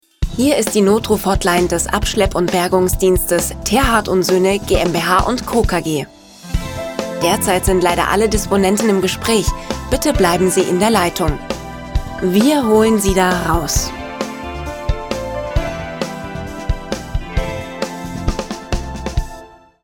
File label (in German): Telefonansage sachlich